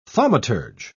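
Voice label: "thaumaturge", British English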